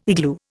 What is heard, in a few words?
"igloo", Indian